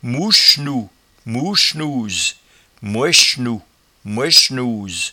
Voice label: "mouchnou", patois jura